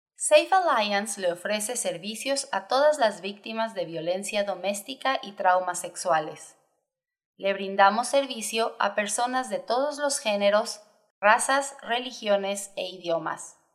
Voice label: spanish